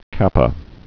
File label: "kappa", Greek alphabet